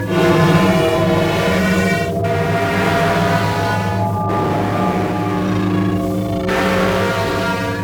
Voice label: gibberish loop; svencoop

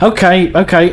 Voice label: Yes, male, voice commands; svencoop

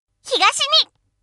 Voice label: chitchat, voice commands Anime Loli; svencoop

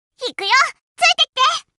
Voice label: follow me, voice commands Anime Loli; svencoop

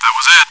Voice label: cheer; svencoop

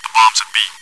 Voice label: chitchat; svencoop

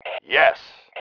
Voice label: "YES", male

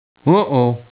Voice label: uh oh

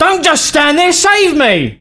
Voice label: Dying, male, voice commands; svencoop

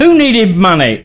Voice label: Drop Cash, voice commands, male; svencoop

